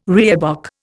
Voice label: "reebok", Indian